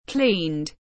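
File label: "Cleaned", English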